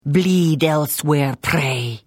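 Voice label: Hello, Khajiit female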